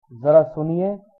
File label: excuse me, hindi